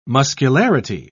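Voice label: "muscularity", British English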